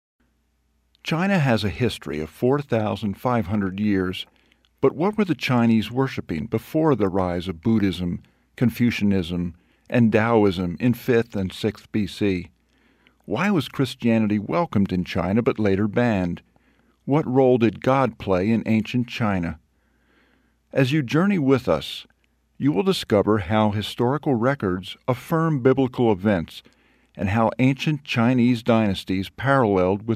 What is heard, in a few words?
Documentary; China